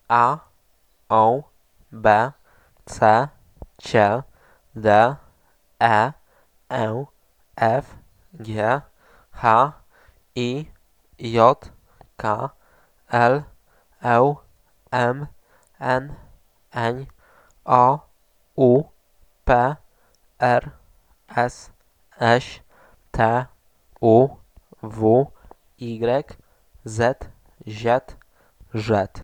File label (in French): Alphabet polonais